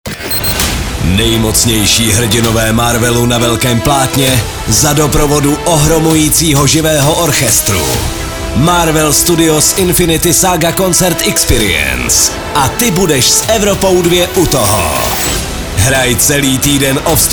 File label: liner